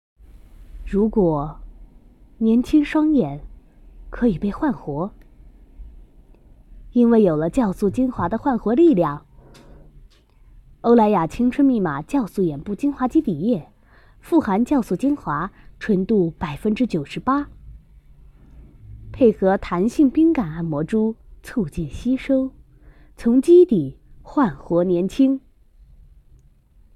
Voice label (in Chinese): 广告